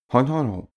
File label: male talk neutral